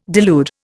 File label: "delude", Indian